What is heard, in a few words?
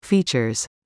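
"features", English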